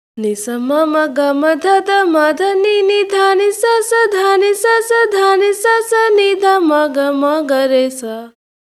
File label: voice, timestretch